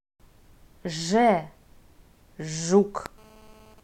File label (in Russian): ж